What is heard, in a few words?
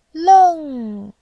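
"leng4", Mandarin Chinese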